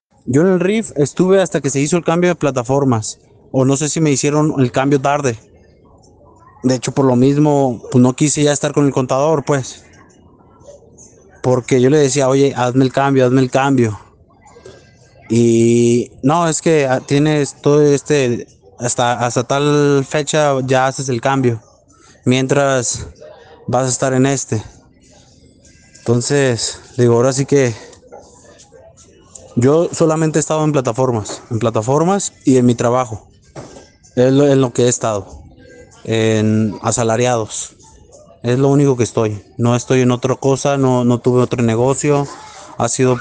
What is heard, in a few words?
whatsapp ptt